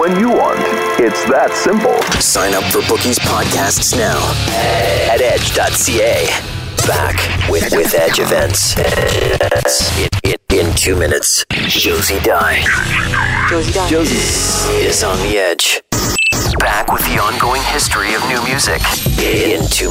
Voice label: alt rock imaging, radio imaging men

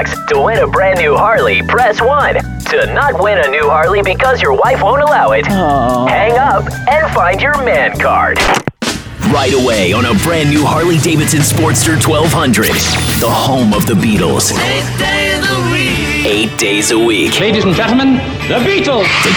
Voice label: radio classic rock, radio imaging

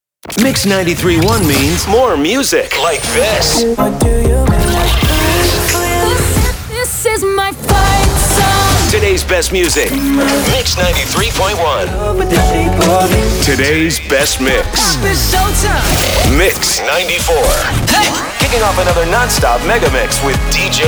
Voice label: radio imaging male chr

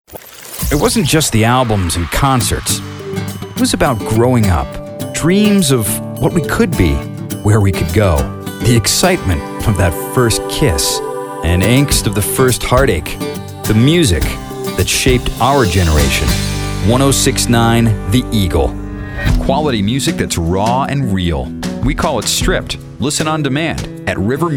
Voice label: radio, radio imaging

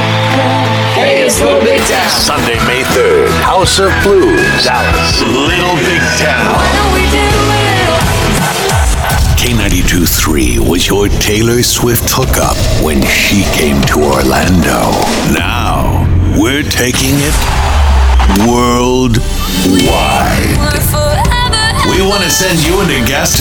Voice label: country, radio imaging

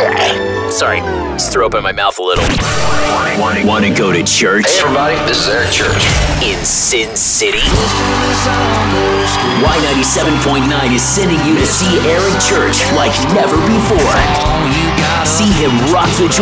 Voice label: radio country, radio imaging

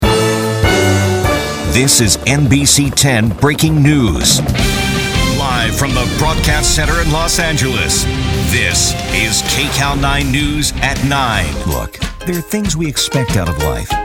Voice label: tv affiliate male

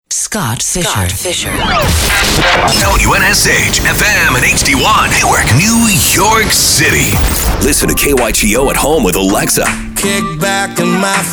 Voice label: radio men country, radio imaging

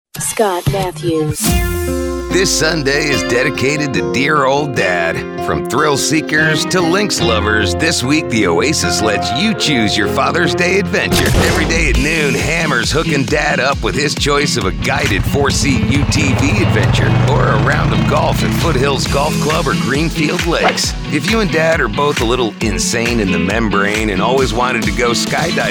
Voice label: radio men classic hits, radio imaging

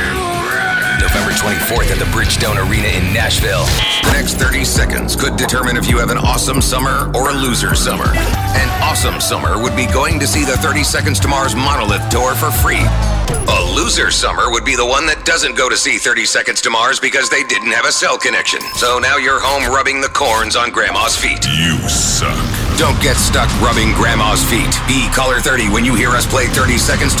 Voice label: radio men rock, radio imaging

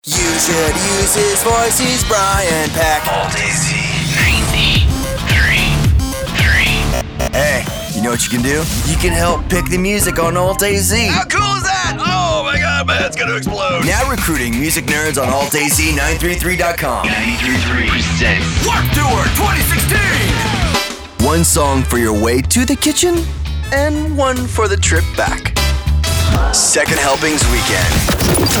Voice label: radio alternative, radio imaging

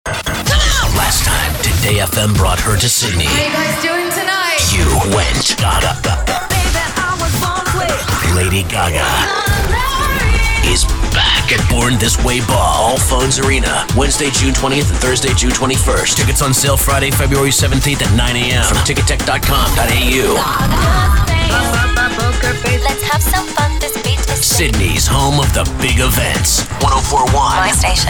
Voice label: radio imaging chr

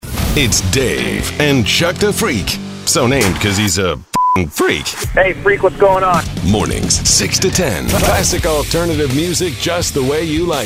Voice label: radio men rock, radio imaging

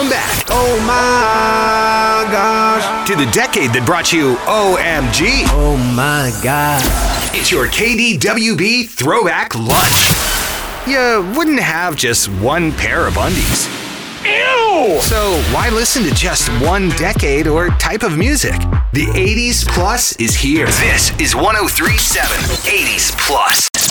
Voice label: radio imaging men classic hits

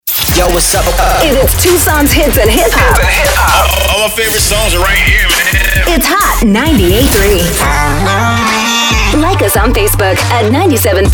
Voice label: radio women chr top40, radio imaging

